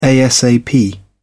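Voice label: "asap", British English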